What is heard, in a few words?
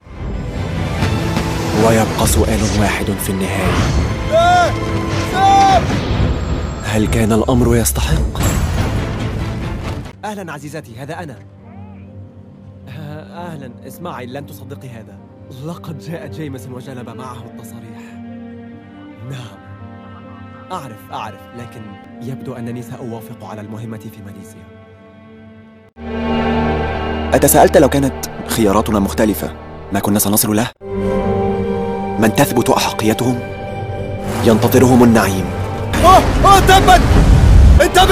male arabic gaming compilation